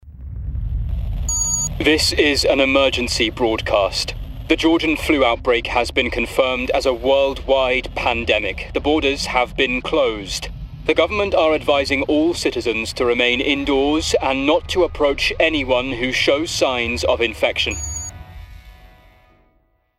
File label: Gaming Newsreader; Gaming Character – Newsreader